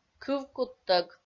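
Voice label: "кывкуттӧг" Komi, female; kpv audio dict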